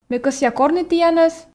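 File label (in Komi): ме кӧсъя корны тіянӧс, Komi, female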